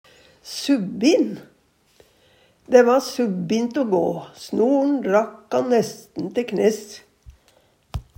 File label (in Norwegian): subbint, Numedalsmål; Adjektiv